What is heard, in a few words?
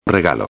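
"regalo", Spanish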